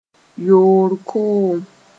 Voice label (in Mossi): "yoor koom", moore; sperm